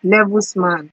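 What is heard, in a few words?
"levels man", Nigerian Pidgin, female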